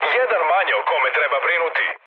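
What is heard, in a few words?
Serbian player killed enemy mood high, speech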